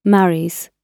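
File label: "marries", British English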